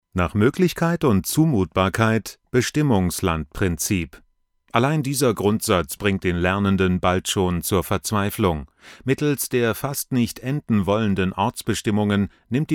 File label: E-Learning